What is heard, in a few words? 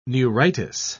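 "neuritis", British English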